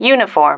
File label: "uniform", female